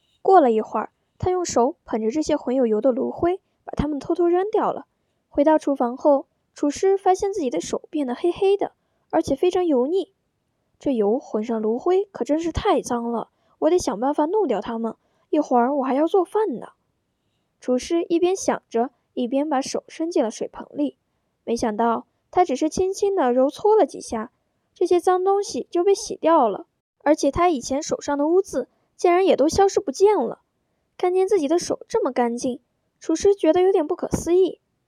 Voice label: 儿童文学文稿

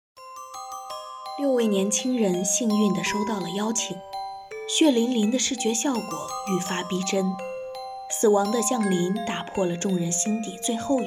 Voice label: 剧本杀旁白

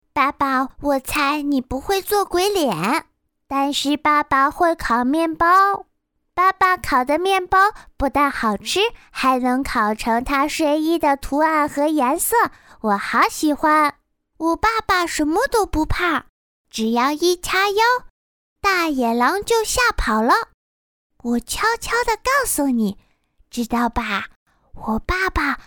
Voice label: 童音 儿童 少儿 讲故事

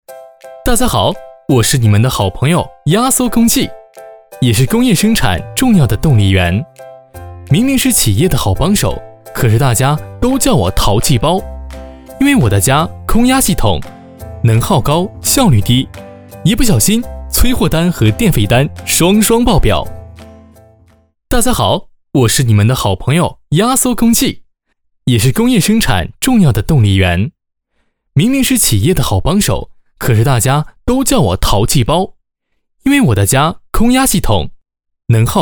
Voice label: 动画轻松活泼; MG动画

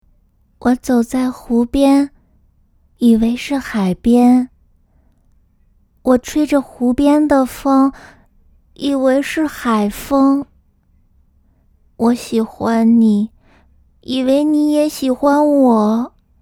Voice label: 夹子音试音

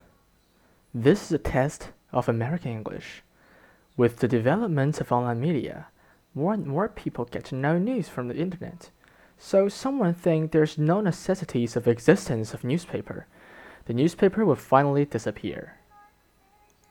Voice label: 美音

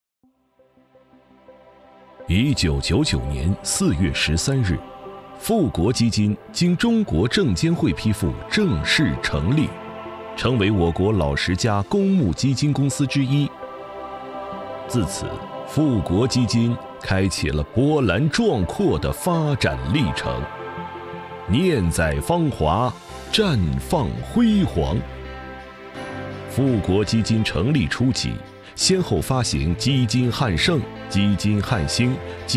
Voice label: 基金广告

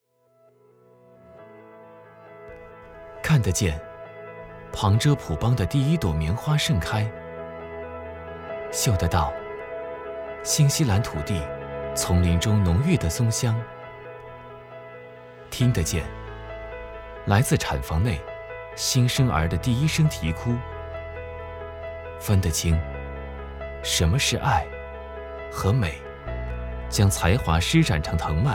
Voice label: 年轻大气; 你就是未来

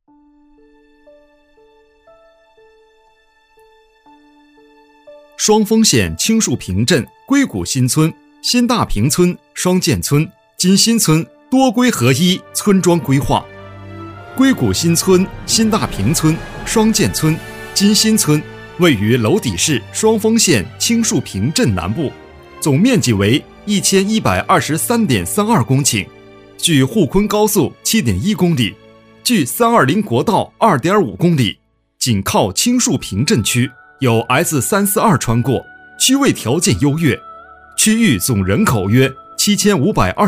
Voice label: 政企宣传

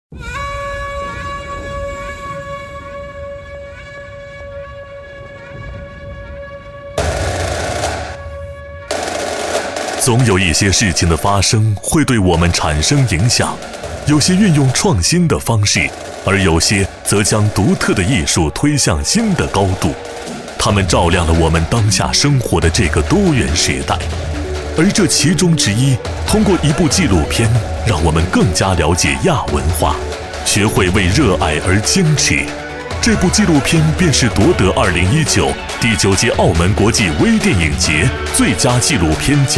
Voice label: 大气 颁奖; 金鸡百花

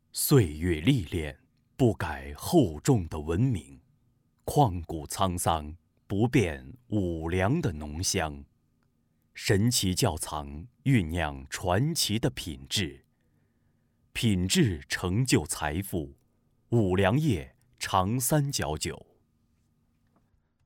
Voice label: 大气悠远 广告; 五粮液广告

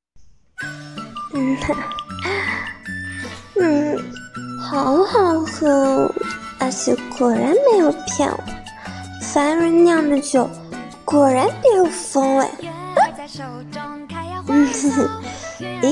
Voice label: 配音

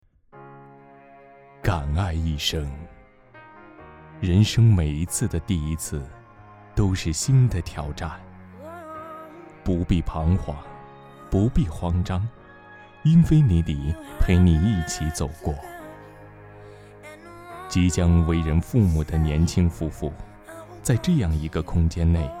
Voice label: 汽车广告; 英菲尼迪

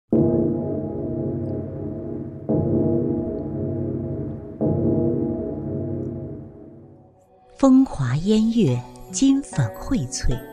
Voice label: 自然讲述; 江南片段

